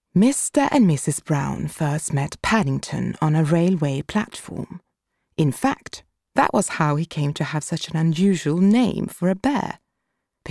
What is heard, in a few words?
English Audiobook